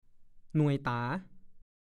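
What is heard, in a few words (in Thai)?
"หน่วยแหน่", ภาษาโคราช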